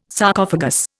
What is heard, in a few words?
"Sarcophagus", Indian